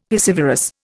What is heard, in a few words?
"piscivorous", Indian; Dictionary